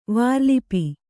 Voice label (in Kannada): "ವಾರ್ಲಿಪಿ", Kannada